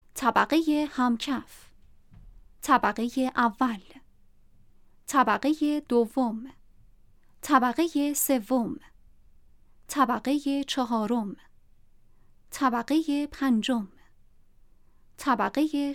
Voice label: The sound of announcing the elevator floors